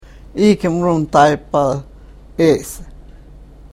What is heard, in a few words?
karuk